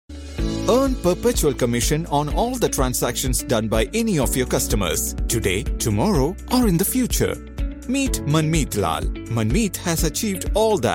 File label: english explainer